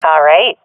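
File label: agree, swat female; vo; agents; Source Model Packs; Counter Strike Model Pack; CS2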